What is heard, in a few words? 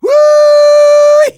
Engineer cheers